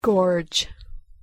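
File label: "gorge", English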